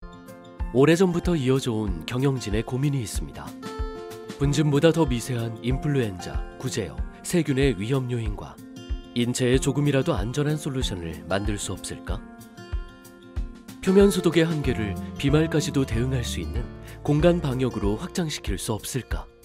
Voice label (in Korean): 나레이션; 리텍